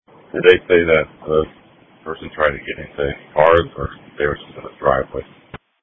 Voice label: Police Dispatch